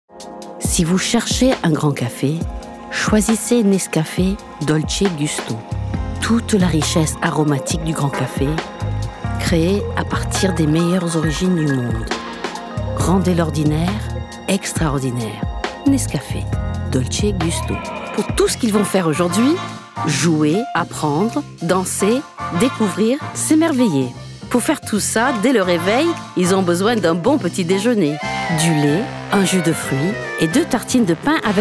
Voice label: female French commercial compilation

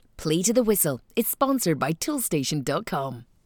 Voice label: female english scottish sponsorship promo; itv

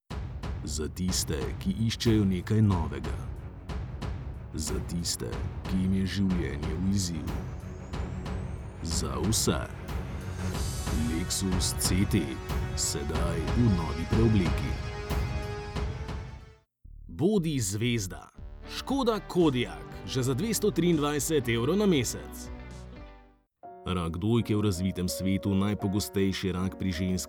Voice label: male slovenian; devine voices

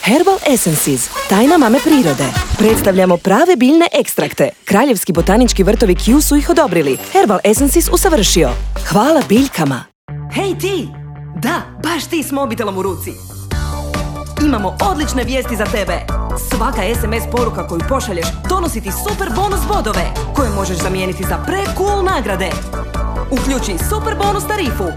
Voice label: female croatian compilation

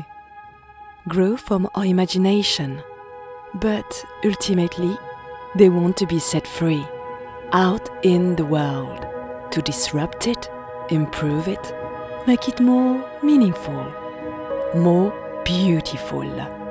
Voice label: female English with French accent ideas narration; voices

